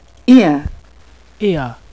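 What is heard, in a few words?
"ear", British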